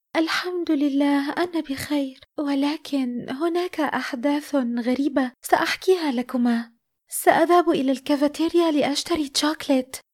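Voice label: teenage girl tired and confused; Voice-Acting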